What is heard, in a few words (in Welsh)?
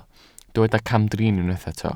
"camdrin", Welsh